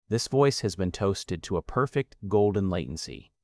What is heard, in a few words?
Measured and authoritative, good when the read should feel stable and serious.; measuredauthoritativedeliberate